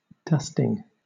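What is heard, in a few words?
"dusting", British English; pronunciation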